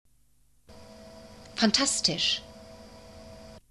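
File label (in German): "fantastisch", German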